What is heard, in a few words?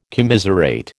"commiserate", American